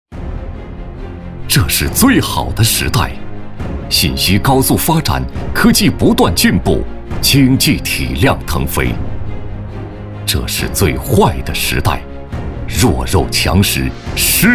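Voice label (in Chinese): 男 专题（紧迫感）; 企业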